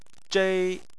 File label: "zhei1", Chinese